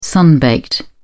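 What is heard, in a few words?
"sun baked", British English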